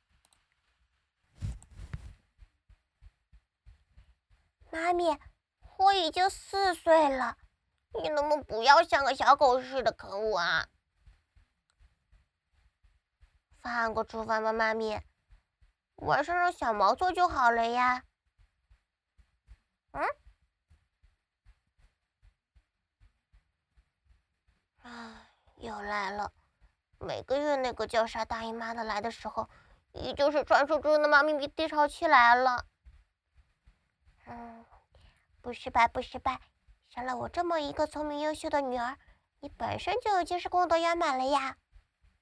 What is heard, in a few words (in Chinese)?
女孩